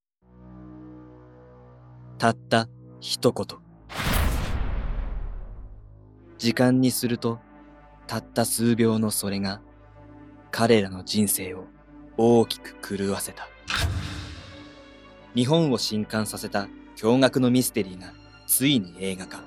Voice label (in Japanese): 映画予告